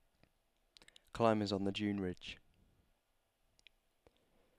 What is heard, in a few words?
people ridge, Shure headset; Speech